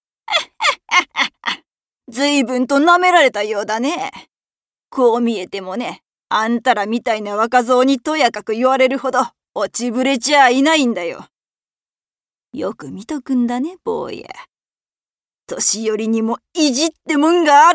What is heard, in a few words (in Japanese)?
老人